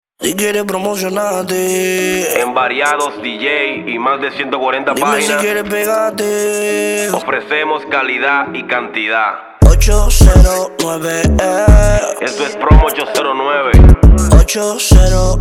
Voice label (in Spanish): anuncio pa Radio